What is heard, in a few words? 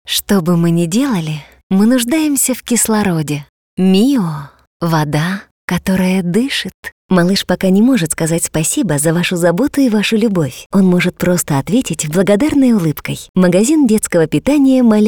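Russian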